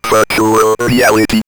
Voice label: Robot Virtual Reality, robot vox; X-Static Goldmine